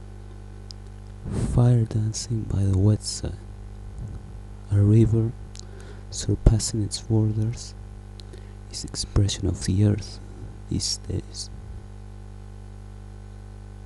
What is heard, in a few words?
poetry audio